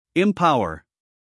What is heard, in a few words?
"empower", us male